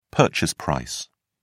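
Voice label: "purchase price", British English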